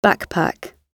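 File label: "backpack" spoken, British English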